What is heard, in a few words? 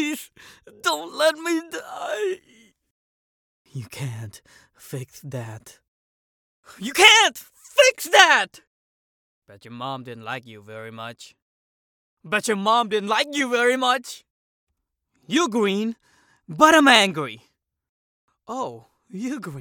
Recruit Male